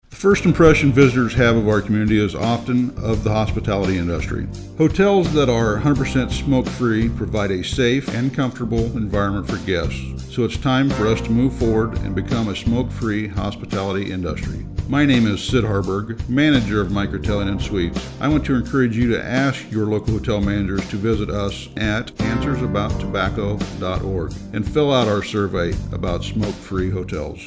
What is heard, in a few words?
Radio Spot